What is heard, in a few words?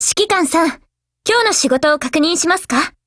HELLO JP; GF Alarm; M1014